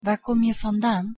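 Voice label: "waar kom je vandaan", Dutch; język niderlandzki podstawowe zwroty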